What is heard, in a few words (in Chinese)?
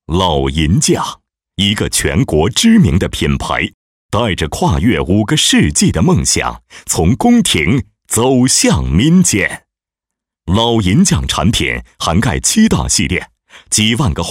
男 广告; 老银匠